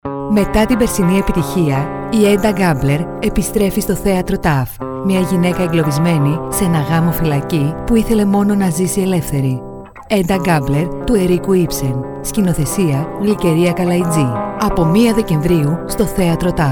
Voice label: Radio Spot; Έντα Γκάμπλερ